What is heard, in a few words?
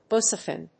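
"Busulfan", English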